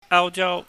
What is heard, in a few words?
"aujau", Cantonese